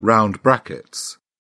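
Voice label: "round brackets", British English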